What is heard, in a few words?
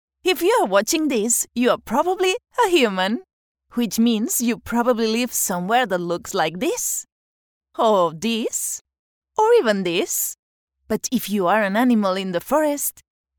F, SP SP, acc eng